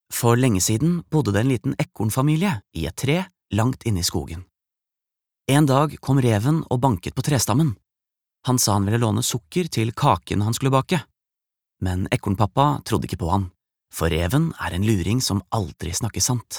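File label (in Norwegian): barnebok, Norwegian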